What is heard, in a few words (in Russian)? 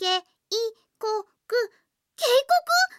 zvuki s golosom iaponskoi anime devushki